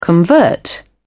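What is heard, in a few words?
"convert", British English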